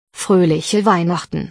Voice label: christmas, german